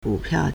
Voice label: "gu3piao4", Chinese